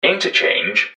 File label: "interchange", english man, subway announcers; MetrostroiAddon